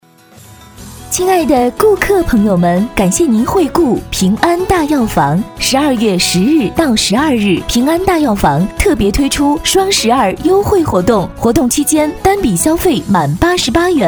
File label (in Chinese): 女 广告 促销 大药房促销 甜美; 平安大药房